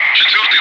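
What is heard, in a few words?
Russian bright; Wingman; DCS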